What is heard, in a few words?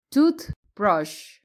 "toothbrush", english